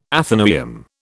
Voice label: "athenaeum", British